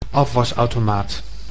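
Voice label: "afwasautomaat", Dutch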